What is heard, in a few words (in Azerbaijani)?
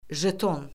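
"jeton", Azerbaijani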